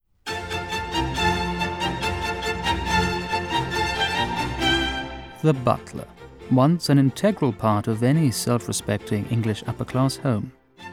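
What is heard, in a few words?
Docu - posh hushed ironic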